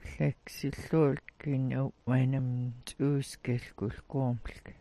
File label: "'waanm ts'uusk", Smalgyax